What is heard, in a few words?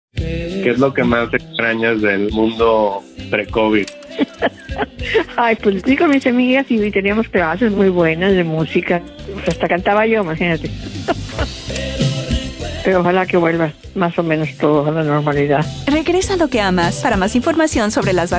Spanish TV spots; Vaccine Confidence Project